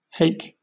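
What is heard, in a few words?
"hake" British English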